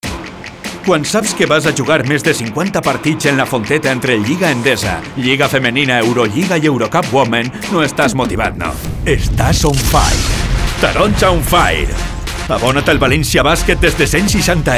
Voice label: Catalan promos